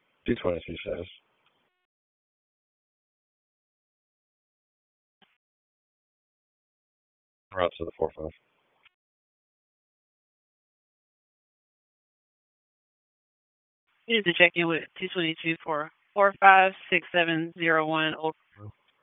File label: Police Northeast District Disp, p25; Raleigh; wake p25